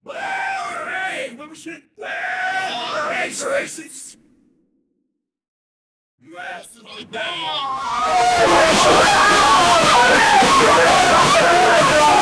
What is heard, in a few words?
funny football commentato, commentary